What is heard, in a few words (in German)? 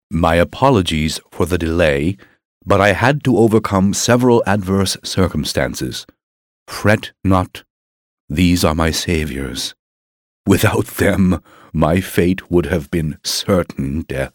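US English, Schauspiel Freundlich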